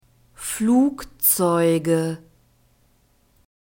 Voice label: "flugzeuge", German